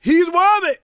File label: PREACHIN, Gospel PREACHER